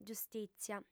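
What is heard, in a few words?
"giustìtzia", Sardinian, female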